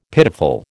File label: "pitiful", American